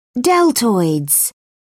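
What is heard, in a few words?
"deltoids", British English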